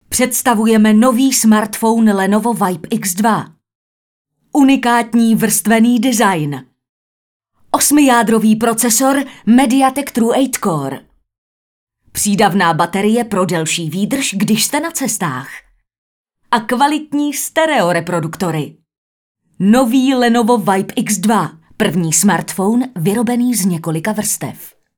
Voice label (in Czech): čeština žena